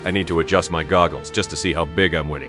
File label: happy, speech; fertig classic games